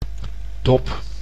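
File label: "dop", Dutch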